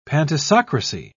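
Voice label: "pantisocracy", British English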